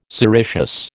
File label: "sericeous", American